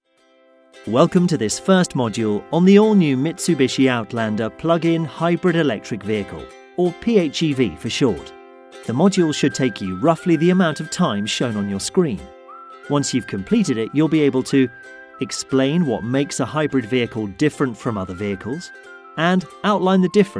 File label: male british voiceover e-learning elearning